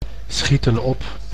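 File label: "schieten op", Dutch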